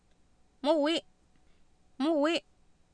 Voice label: "mOwI", Oapan, female; Oapan minimal pairs 2000